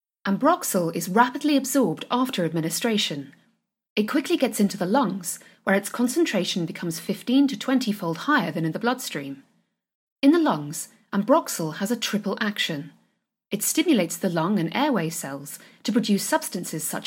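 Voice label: English, female, ELearning, voice over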